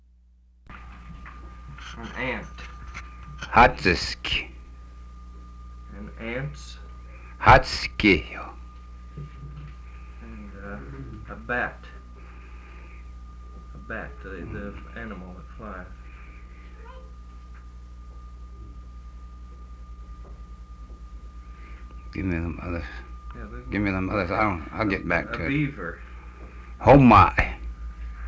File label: Cheyenne